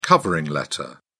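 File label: "covering letter", British English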